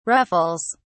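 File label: "ruffles", English, British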